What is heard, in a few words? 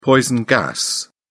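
"poison gas", British English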